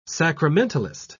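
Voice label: "sacramentalist", English, British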